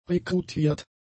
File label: "rekrutiert", German; adjectives